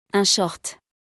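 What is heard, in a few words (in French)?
"Un short", French; vocabulaire; le lexique de l'habillement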